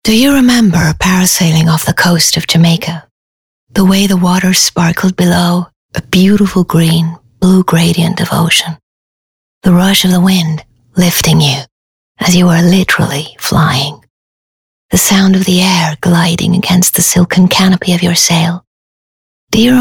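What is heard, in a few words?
English American Accent Conversational; OSM Talent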